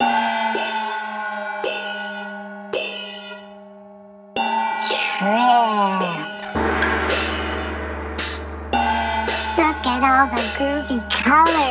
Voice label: An audio clip of a fake advertisement from my podcast, in which my daughter tries to sell you a virtual reality headset that simulates an LSD trip